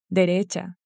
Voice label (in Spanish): "derecha", Spanish